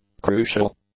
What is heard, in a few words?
"crucial", English; adjectives